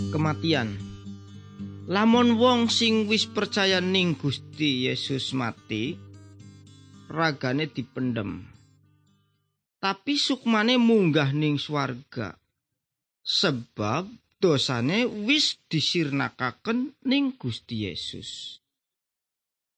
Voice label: good news jawa indramayu, javanese bible